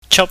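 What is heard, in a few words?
"chap", Cantonese, high level tone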